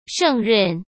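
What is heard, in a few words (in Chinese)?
"胜任", Mandarin Chinese; hsk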